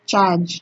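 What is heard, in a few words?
"charge", Nigerian Pidgin, female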